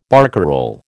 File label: "barcarole", American